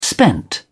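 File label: "spent", English, British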